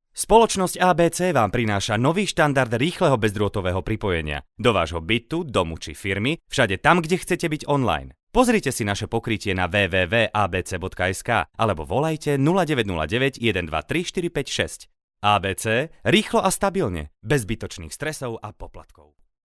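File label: Slovak, male, commercial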